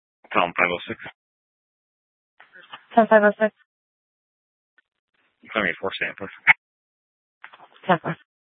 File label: Sheriff, scanner P25